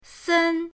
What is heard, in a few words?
"sen1", pinyin; pinyindct-open